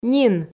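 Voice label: "нин", Komi, female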